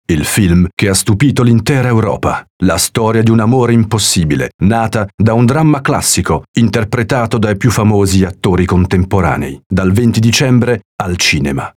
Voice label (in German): italienisch; sprecher; profi